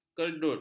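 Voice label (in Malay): "kerdut", Malay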